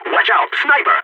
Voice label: sniper warning, balkan; vo